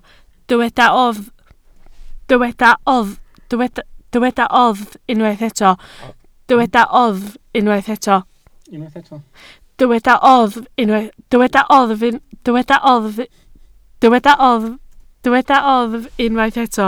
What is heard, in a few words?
"oddf", Welsh